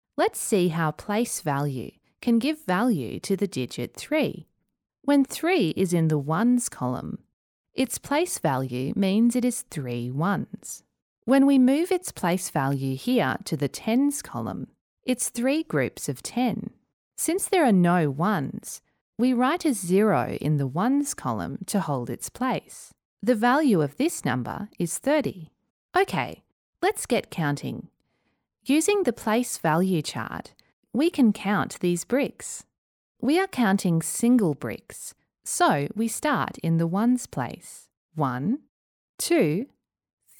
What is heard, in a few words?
Kids Learning